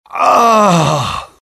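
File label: death, dialogue generic Italian; mohaa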